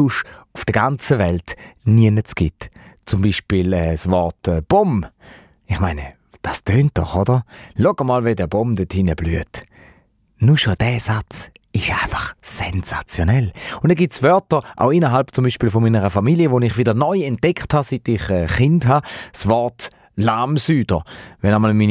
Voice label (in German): Thurgauer Dialekt; Reto Scherrer